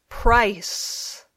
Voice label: "price", English